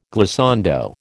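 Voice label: "glissando", American English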